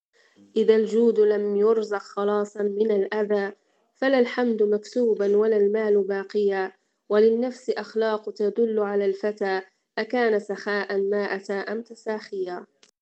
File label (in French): Arabe